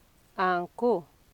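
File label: "anko", Nigerian Pidgin, female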